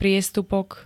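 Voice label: "priestupok", Slovak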